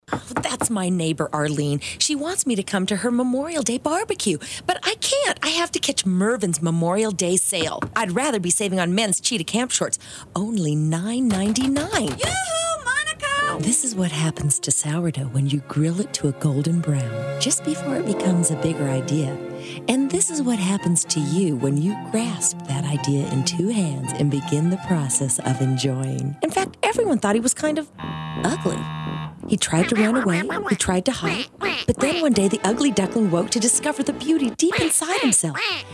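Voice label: commercial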